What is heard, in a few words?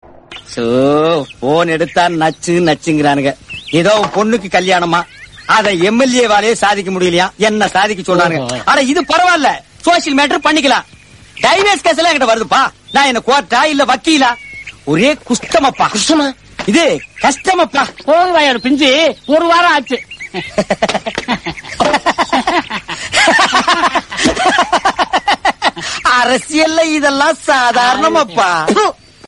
Dialogue Tamil South Indian; Jaihind; Goundamani